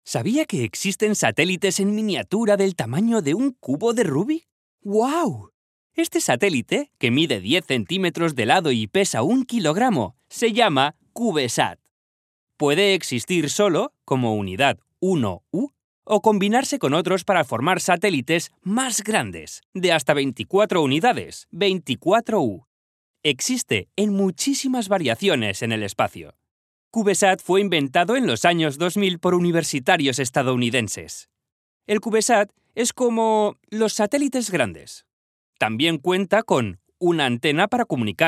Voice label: Children's Audio Guide - Science Museum; Audioguia Infantil Museo de Ciencia